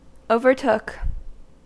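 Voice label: "overtook", American English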